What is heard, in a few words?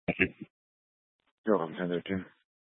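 Police Dispatch, scanner audio recording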